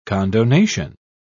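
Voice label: "condonation", British English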